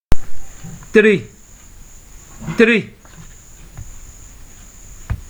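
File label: "three", Nigerian Pidgin, male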